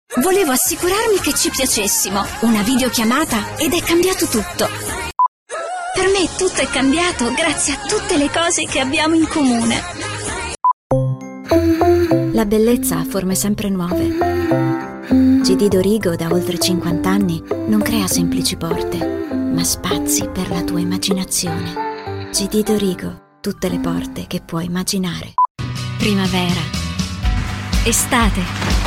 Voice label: female, Italian commercial compilation